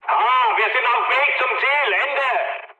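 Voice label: German chat, speech; War Thunder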